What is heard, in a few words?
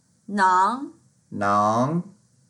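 "nang2", Mandarin Chinese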